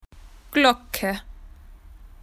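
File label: "bell", Australian English; PictureDictionary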